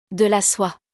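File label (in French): "De la soie", French; vocabulaire; le lexique de l'habillement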